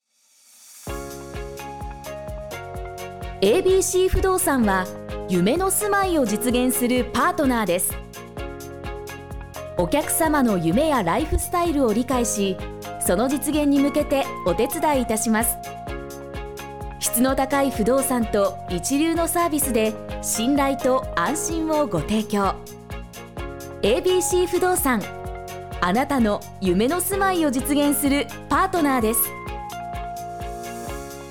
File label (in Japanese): 企業CM風